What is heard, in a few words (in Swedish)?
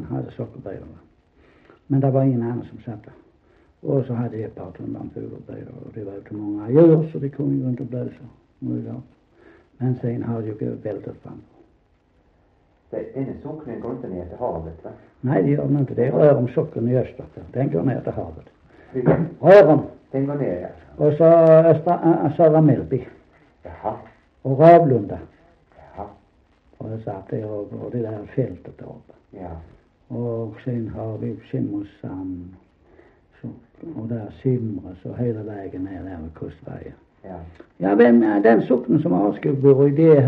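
Sankt Olof Skåne, Svenska sagor dialekter; Folkminnen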